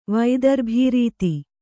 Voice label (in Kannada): "ವೈದರ್ಭೀರೀತಿ", Kannada